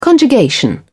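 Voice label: "conjugation", British English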